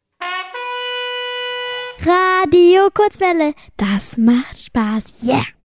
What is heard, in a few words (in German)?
Jingle; Das Macht Spass